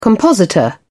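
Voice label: "compositor", English, British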